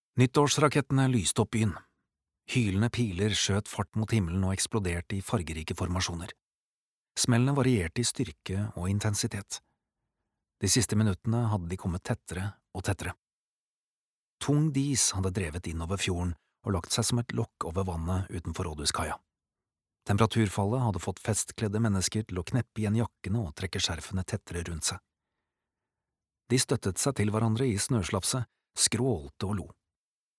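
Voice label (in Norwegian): Norwegian lydbok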